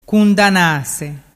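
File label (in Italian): "cundanase", Vernazzese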